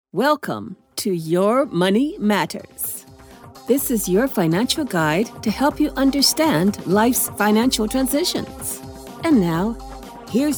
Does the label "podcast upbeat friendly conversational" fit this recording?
Yes